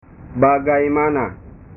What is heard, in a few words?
"bagaimana", bahasa